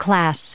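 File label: "class", US English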